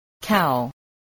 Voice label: "cow", English